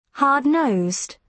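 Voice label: "hard nosed", British English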